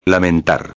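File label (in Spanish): "lamentar", Spanish; modos tempos verbais; subjuntivo; preterito perfecto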